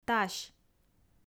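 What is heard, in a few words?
"тась", Komi, female; audio dict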